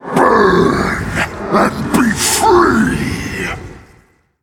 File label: Male Elemental Play